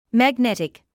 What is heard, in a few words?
"magnetic", medical English